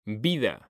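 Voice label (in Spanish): "vida", Spanish; mekanta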